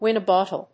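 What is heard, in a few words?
"winebottle", English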